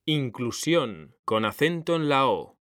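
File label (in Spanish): "inclusión", Spanish, male; mekanta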